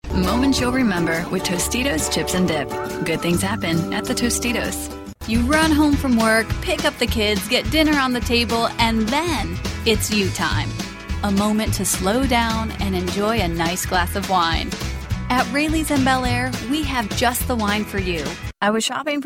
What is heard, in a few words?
Voiceovers